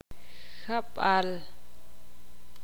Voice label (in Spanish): "lluvia", ixil